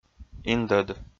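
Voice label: "индӧд", Komi, male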